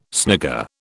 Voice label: "snigger", British